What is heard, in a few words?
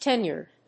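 "tenured", English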